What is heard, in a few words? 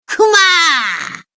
wrath; monokuma